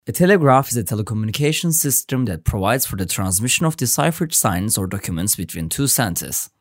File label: english